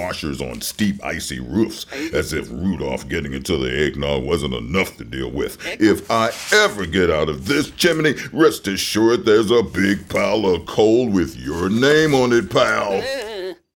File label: Angry Santa